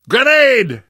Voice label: grenade, survivor; voice; source engine; left 4 dead 2; namvet